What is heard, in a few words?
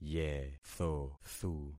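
"yethothu", concatenated syllables; Affixing experiment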